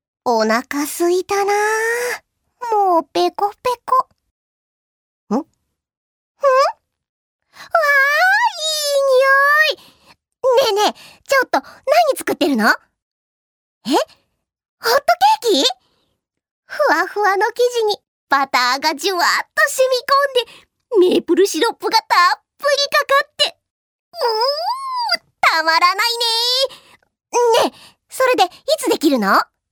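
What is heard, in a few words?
seikaku female fushigi chan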